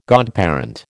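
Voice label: "godparent", American English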